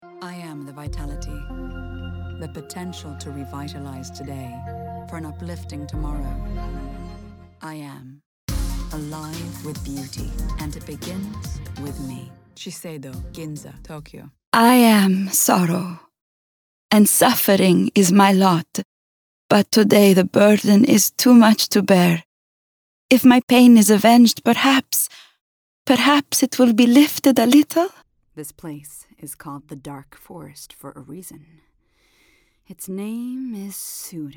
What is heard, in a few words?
VO Commercial Videogame Animation